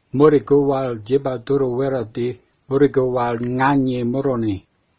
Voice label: wiradjuri